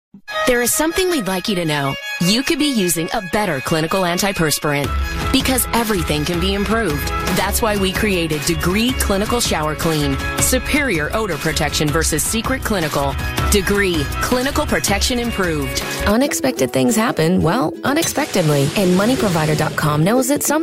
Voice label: commercial women

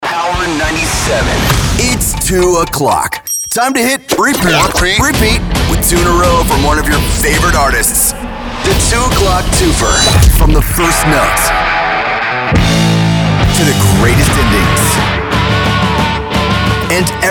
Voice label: radio imaging men rock

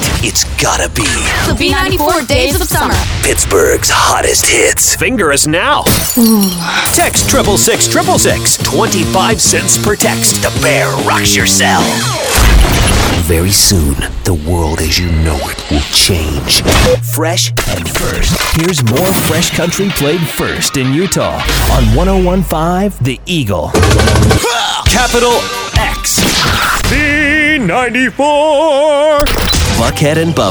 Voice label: radio chr, radio imaging

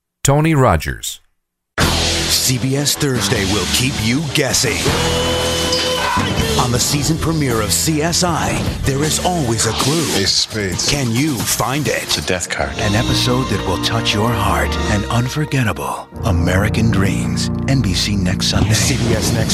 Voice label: promo men

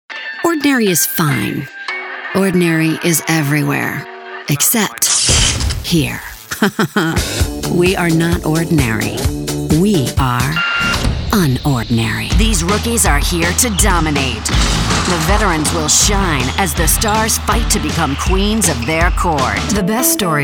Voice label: promo female

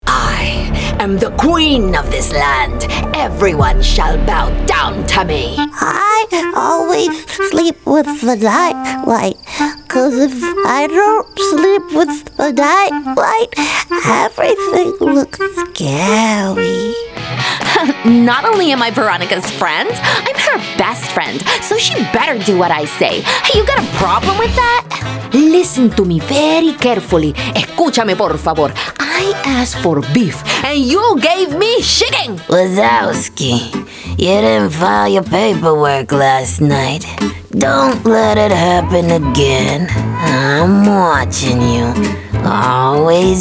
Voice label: animation women

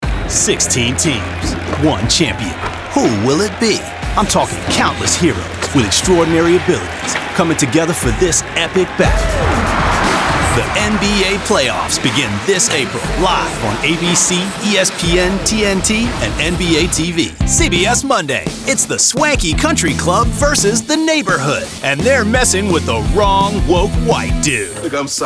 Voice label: promo men

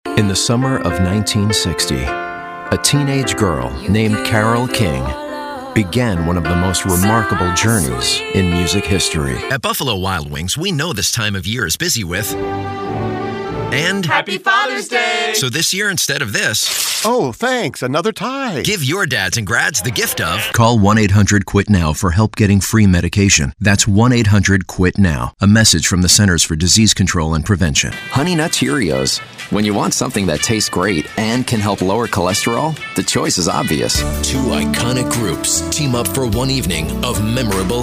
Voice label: commercial women

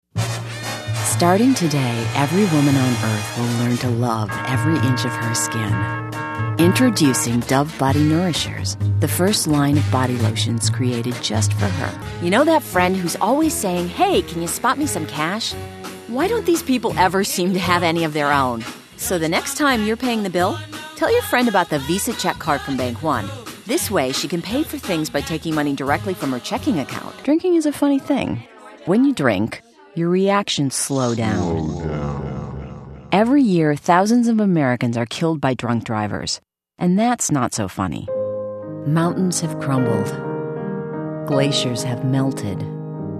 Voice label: commercial women

